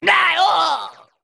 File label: Male Sporelok Death